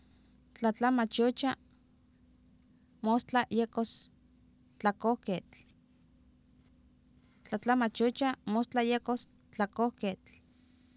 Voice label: Oapan minimal pairs, female; tlatlamachiootia moostla yEkOs tlakoohkeetl; Oapan minimal pairs 1998